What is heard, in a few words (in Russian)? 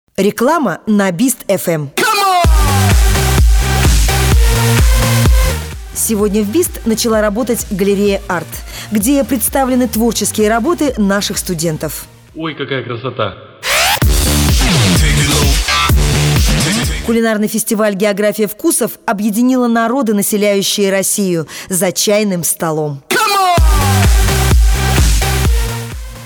reklama; bist fm